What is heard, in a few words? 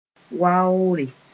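"waoore", Mooré; respect honour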